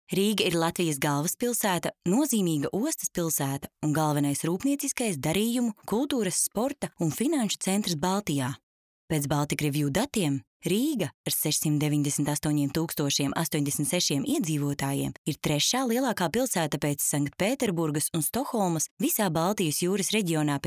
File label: female latvian voice over narrative